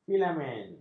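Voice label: "filamen", Malay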